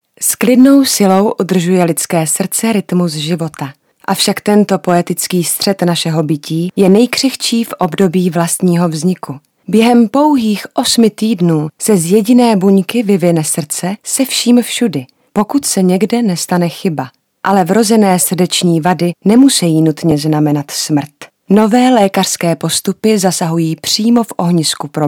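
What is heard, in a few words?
Female Czech documentary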